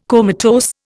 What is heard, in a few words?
"comatose", Indian